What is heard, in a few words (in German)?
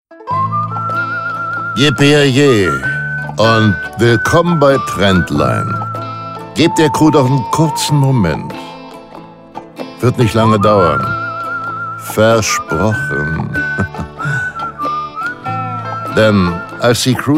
Ansage vor Melden